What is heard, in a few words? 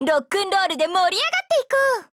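MEET Japanese; 少女前线:SPAS-15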